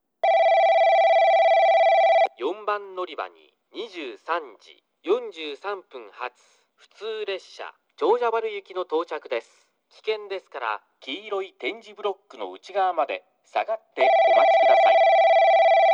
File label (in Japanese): 4番のりば接近放送（普通 長者原行き）